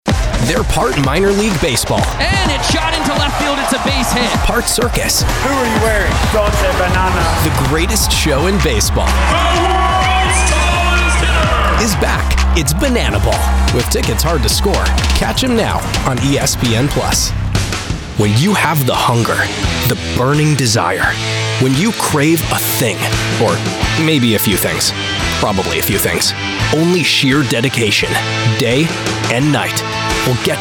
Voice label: commercial